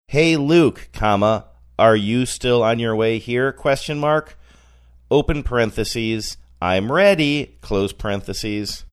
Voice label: dictating text message